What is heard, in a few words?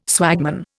"swagman", Indian